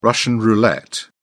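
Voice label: "russian roulette", British English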